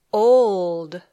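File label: "old", English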